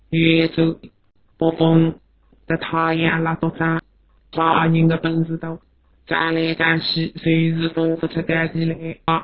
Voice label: Suzhou mono

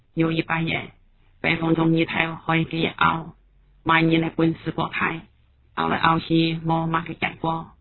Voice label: Meixian mono

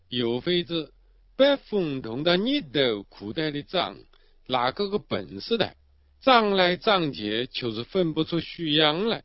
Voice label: Nanchang melo

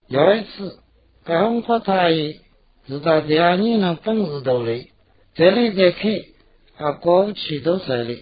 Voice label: Wenzhou melo

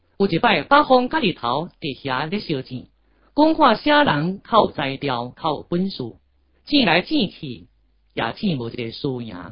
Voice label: Xiamen melo

